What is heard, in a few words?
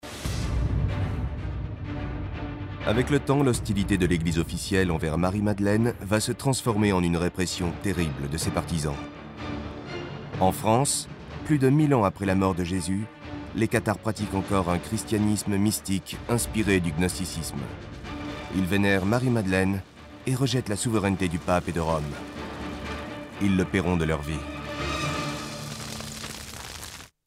Narration